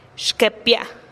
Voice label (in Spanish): "xkepia" mi ombligo, teotitlan